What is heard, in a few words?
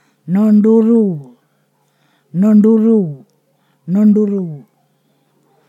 "piled up firewood", Ponosakan